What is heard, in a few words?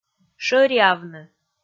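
"шӧриавны", Komi, female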